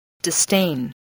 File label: "disdain", english spelling